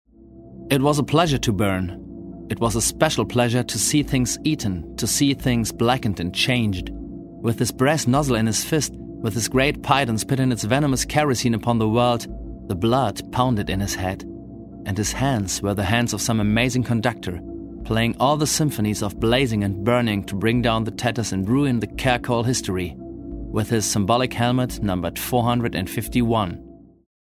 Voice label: English; Fahrenheit

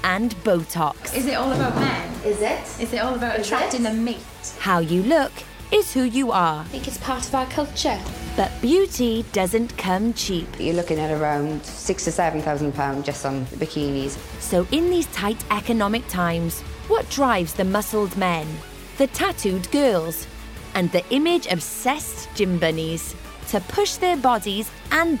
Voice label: Documentary Young Welsh; Young Welsh And Skint

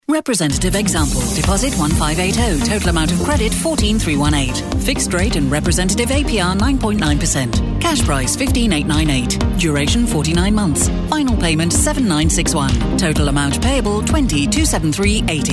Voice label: Legal Endline

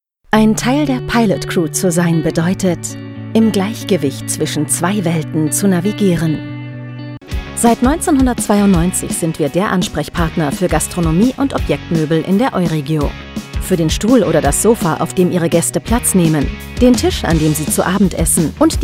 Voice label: German Corporate

